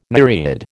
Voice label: "nereid", American

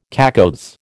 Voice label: "cacoethes", American

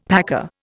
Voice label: "packer", Indian; Dictionary

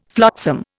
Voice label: "flotsam", Indian

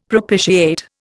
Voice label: "propitiate", Indian; Dictionary

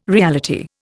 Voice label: "reality", Indian

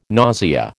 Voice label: "nausea", American

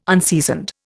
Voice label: "unseasoned", Indian

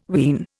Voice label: "ween", Indian

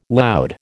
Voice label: "loud", American

